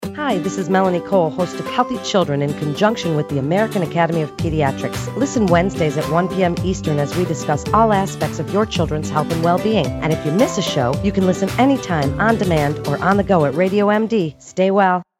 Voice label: Promo; Healthy Children

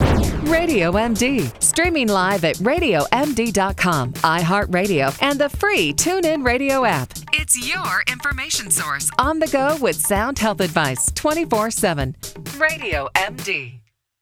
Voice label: Promo; RadioMD Production

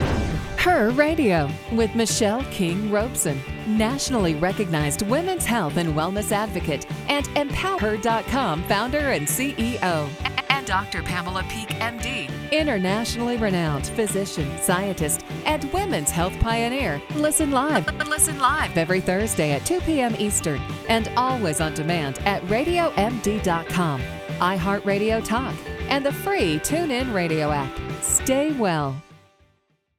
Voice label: Promo; RadioMD Production

